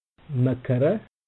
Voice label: "makkara", amharico